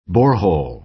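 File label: "borehole", American English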